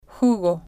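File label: "jugo", Spanish, female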